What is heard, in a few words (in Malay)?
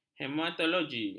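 "hematologi", Malay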